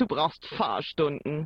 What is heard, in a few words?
german voice; BALLY; CORVETTE